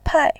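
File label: "pai", Mandarin, tone 4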